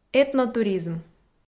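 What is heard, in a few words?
"этнотуризм", Komi, female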